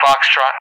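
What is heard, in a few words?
"Foxtrot" English, speech; Alphabet; DCS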